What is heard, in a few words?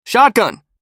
"Shotgun", american boy